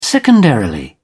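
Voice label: "secondarily", British English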